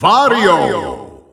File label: Dutch Announcer; Wario; SSBU